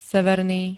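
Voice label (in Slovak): "severný", Slovak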